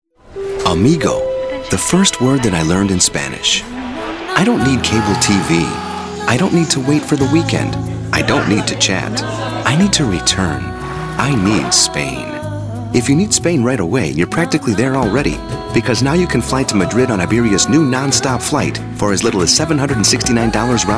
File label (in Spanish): USA Masculino